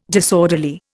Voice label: "disorderly", Indian